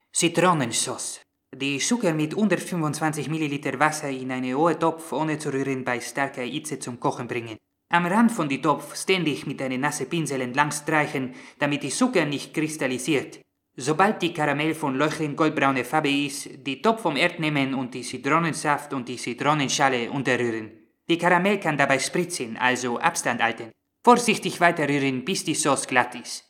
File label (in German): Spanier, Dialekte